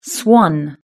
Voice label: "swan", British English

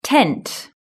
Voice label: "tent", british english

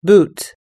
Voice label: "boot", us english

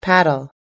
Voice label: "paddle", us english

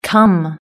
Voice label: "come", British English

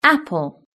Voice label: "apple", british english

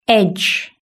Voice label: "edge", British English

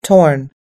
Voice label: "torn", US English

